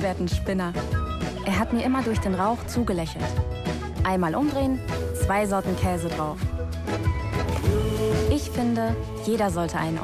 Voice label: Cheddar Cheeseburger TV German, voice; Kerrygold